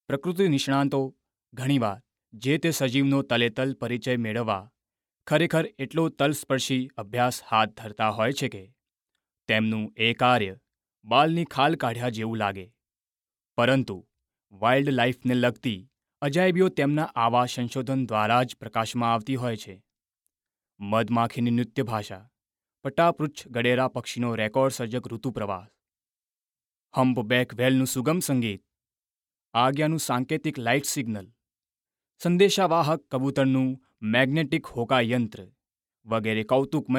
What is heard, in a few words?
narration GUJARATI